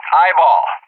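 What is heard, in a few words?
"Eyeball", English; JTAC; Callsign; DCS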